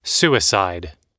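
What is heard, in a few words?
"suicide", English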